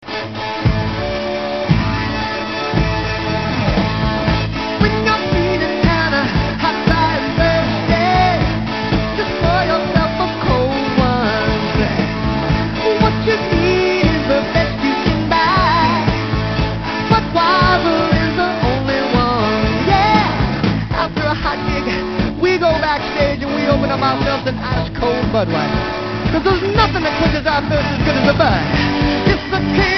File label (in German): werbung; budweiser